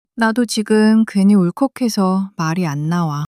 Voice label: sadness, monologue

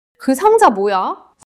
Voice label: neutral, monologue

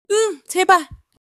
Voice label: excitement; monologue

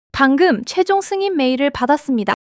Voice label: excitement; monologue

